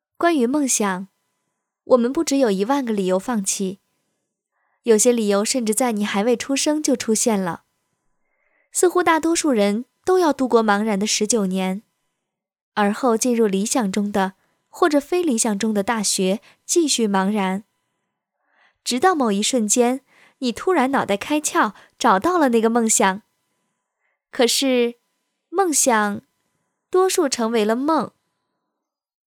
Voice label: 女生声音

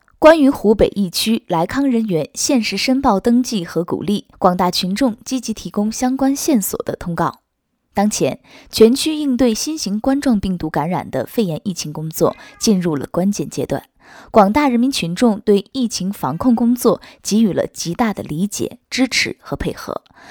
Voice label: 时事新闻类

